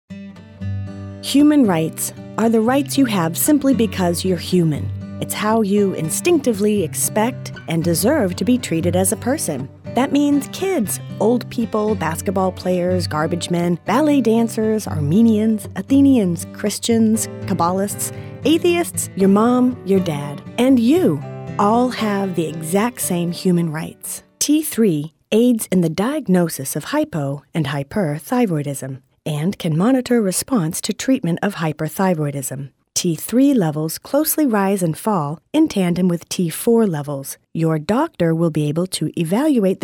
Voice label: narration